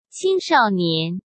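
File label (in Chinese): "青少年", Mandarin Chinese; hsk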